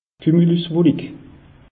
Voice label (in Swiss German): "kumulus wolik", alsace; meteo